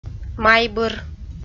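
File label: "майбыр" Komi, female; kpv audio dict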